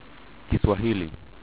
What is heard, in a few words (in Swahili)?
"kiswahili", Swahili